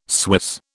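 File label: "Swiss", British English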